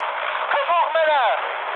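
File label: German near waypoint mood high, speech; War Thunder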